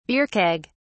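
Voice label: "beer keg", English, British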